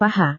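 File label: "paha", Indonesian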